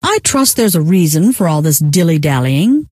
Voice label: dilidaling, scientist female